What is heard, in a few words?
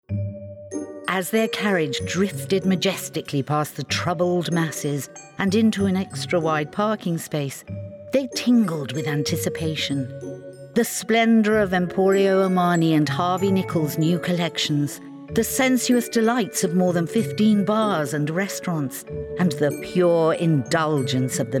Christmas Radio Modern Fairytale; The Mailbox